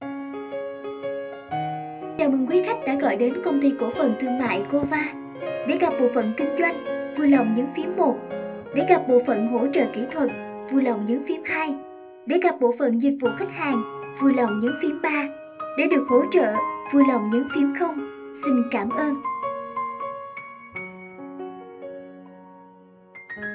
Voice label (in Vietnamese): Giong mau Tieng Viet Nu Mien Nam